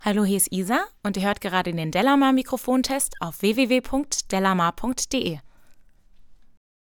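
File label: speech female rode nt1000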